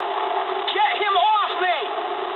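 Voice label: aircraft damaged mood high, speech English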